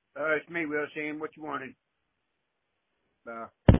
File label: Voicemail